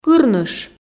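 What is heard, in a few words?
"кырныш", Komi, female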